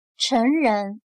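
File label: "成人", Chinese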